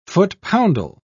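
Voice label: "foot-poundal", British English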